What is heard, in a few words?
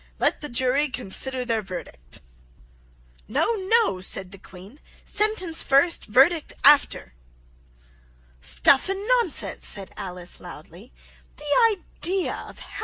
alice in wonderland slow